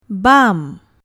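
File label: "bam", Malay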